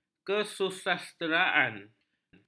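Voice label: "kesusasteraan", Malay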